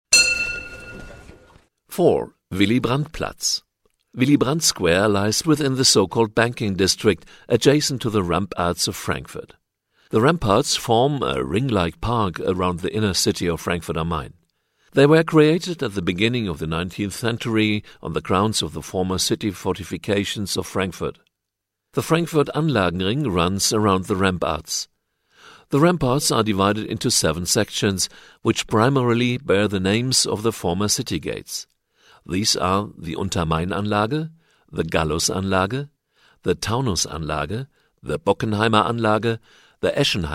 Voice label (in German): Englisch; Willy-Brandt-Platz; Ebbelex; Entlang der Strecke